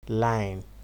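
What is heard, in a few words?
"line", Nigerian Pidgin, male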